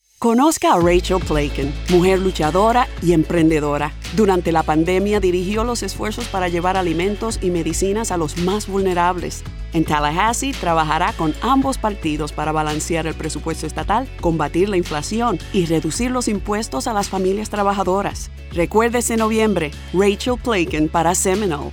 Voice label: Sp Radio

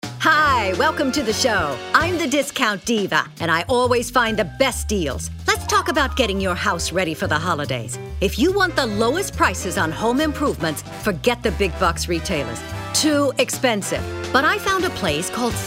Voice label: RADIO; Seconds Surplus 2018

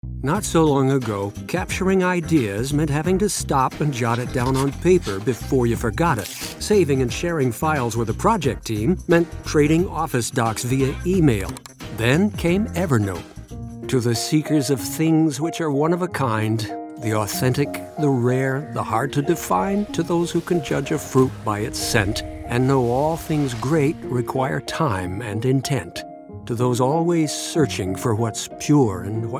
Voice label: Narr

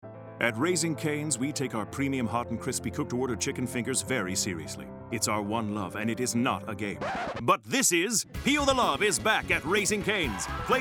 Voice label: Unslated Spot

